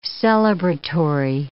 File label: "celebratory", English